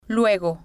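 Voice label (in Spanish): "luego", Spanish, female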